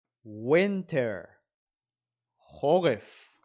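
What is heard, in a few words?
"winter" English, "חורף" Hebrew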